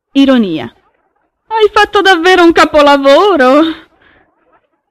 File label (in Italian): ironia